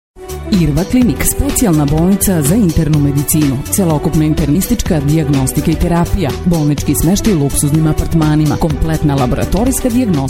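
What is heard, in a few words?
Serbian female commercial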